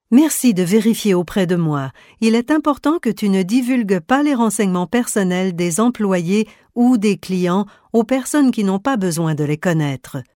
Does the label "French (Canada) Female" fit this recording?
Yes